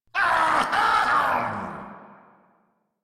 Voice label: Spawn Ghoul Saw, en; Grineer Ghoul Sawman

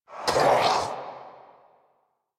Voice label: Pain; Warframe; Zariman; Zariman Wraith

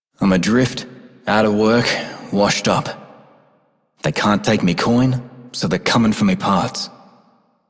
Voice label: Male Repo, Male Two, en; Dialog; Warframe; Solaris Venus

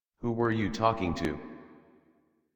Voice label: Adult, en, Dialog; Dorm Cave; Warframe; Duviri Paradox Quest

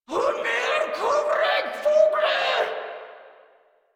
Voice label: Cover Me Grineer Female; Warframe

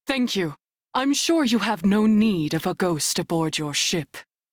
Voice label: Crew Heal, Female; Dialog; en; Warframe; Railjack Crew; New Loka

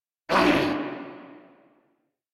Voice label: Threatened Grineer Male

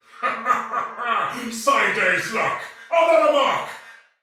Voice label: See player shout, male soldier; Dialog; Barks; Battle; Sinecure

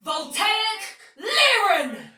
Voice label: Attack Tech, Soldier Female, Battle; Dialog; Barks; Sinecure

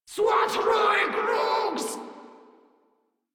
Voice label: Reload Grineer Female; Warframe

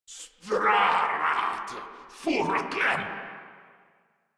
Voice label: Attack, Grineer Male; Warframe

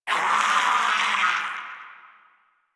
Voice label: Death, Battle, Barks, en; Warframe; Grineer Wolf Gangster

